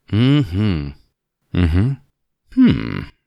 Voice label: Affirmative 3x